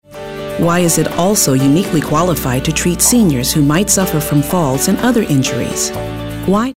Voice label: caring

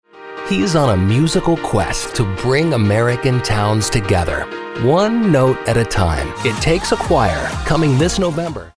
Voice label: Television Promo

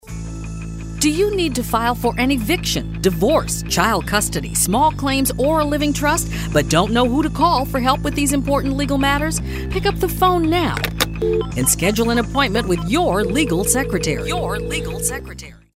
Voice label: Authoritative

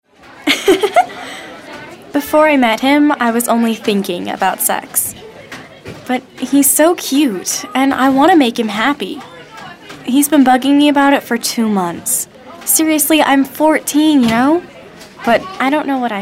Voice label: real younger

